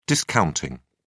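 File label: "discounting", English, British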